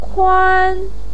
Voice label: "kuan1", Chinese pinyin voice

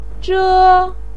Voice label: "zhē", voice pinyin

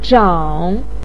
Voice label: "zhǎng", voice pinyin

